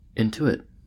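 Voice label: "intuit", US English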